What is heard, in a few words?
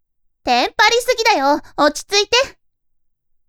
woman